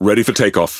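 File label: Speech Pilots Bomber Ready, British English; World Of Warships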